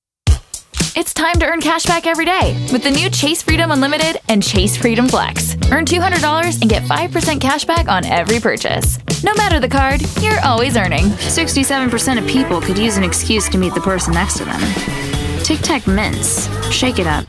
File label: women commercial